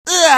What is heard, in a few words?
Male; ChooChoo Heroes